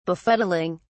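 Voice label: "befuddling", en, uk British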